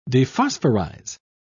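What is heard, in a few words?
"dephosphorize", British English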